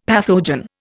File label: "pathogen", Indian; Dictionary